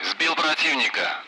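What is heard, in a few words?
Speech, Russian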